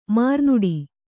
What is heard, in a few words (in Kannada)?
"ಮರುನುಡಿ", Kannada